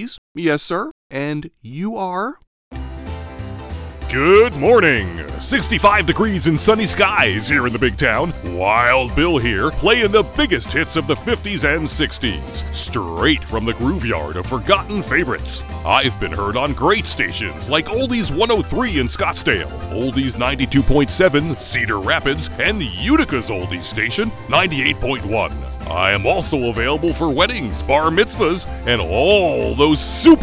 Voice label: Character Demo